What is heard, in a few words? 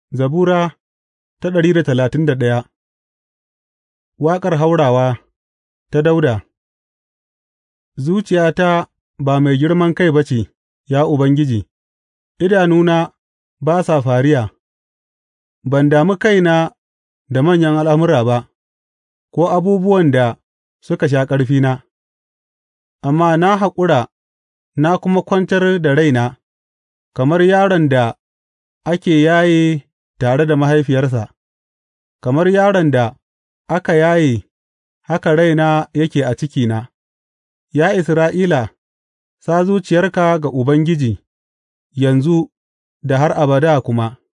hausa bible drama, psalms; hausa bsn nt drama